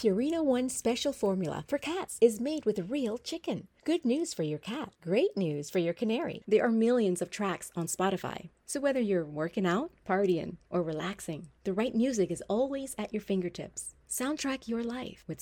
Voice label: English, Female